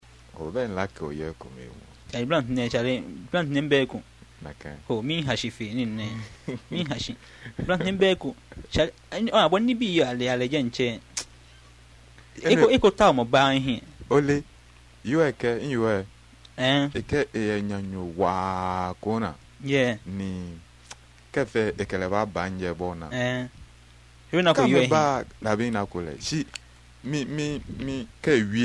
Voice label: conversation, Ga